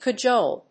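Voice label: "cajole", English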